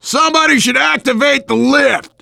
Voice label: finale setup, voice, biker; Left 4 Dead 2; left4dead2 dlc3; survivor